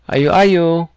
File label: goodbye, cebuano